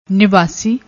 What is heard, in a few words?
"nivassi", Punjabi